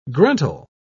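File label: "gruntle", British English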